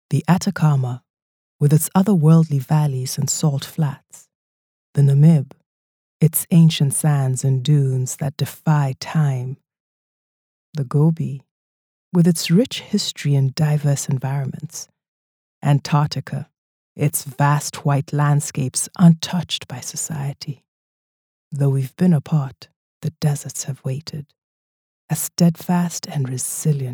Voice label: english african promos